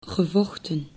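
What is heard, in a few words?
"gevochten", Dutch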